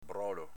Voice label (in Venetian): "brolo", Veneto